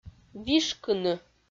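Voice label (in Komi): "вишкыны", Komi, female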